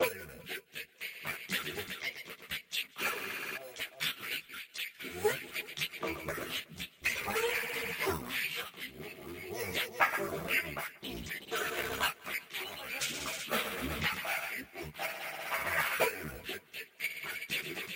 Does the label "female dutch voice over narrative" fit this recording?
No